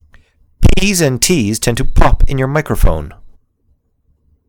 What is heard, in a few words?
No Pop Filter